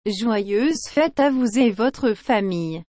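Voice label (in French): "Joyeuses fêtes à vous et votre famille", French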